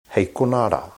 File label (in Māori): "heikona", te reo